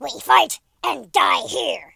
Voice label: "hello", War General; speech